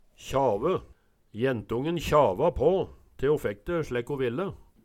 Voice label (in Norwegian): kjave, Numedalsmål